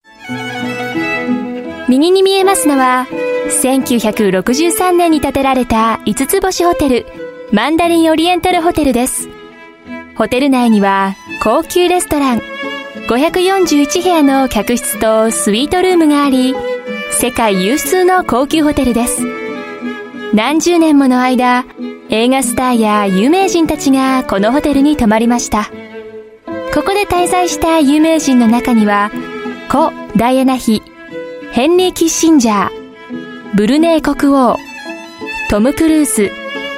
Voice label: Japanese F; Female

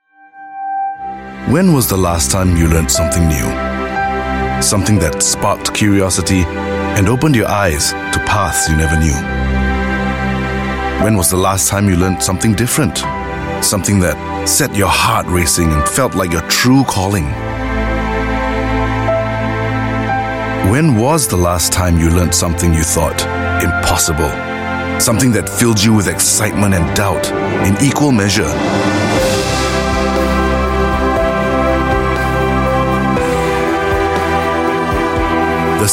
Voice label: Asian English Workforce Development Agency

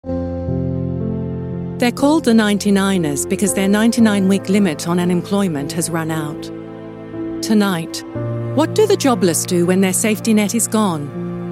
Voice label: UK English; 99ers

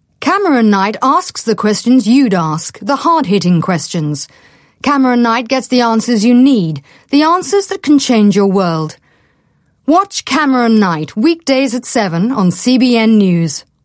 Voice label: UK English News Promo

